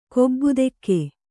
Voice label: "ಕೊಬ್ಬುದೆಕ್ಕೆ", kannada